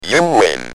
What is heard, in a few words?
Speak & Spell UK You Win